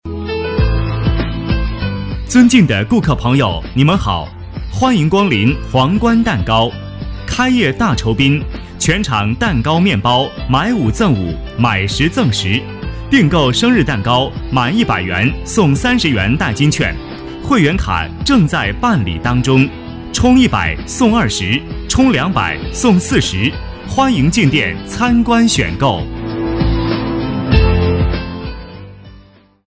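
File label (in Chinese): 促销广告配音, 男声配音, 激情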